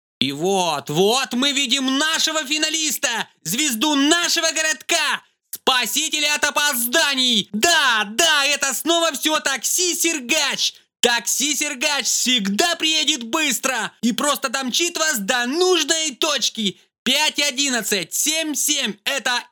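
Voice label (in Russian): комментатор; guberniev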